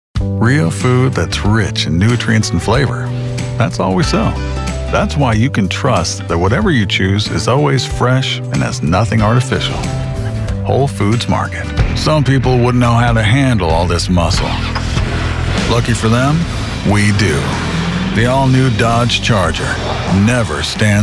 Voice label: men commercial; Strong, Genuine, Engaging. Cool Dad. Corporate Casual.